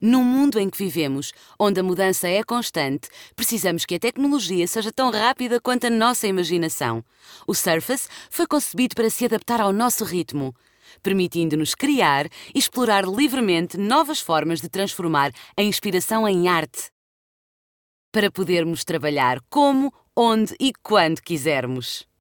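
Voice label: Portuguese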